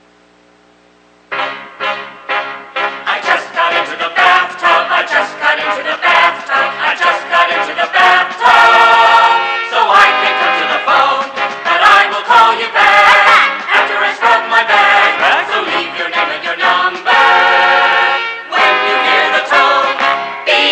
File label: Jolly Bathtub Message; After Beeps